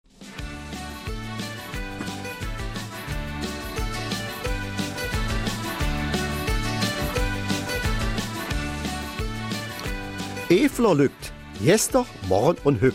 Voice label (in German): Kelmiser Mundart; Im Gespräch mit dem ostbelgischen Tenor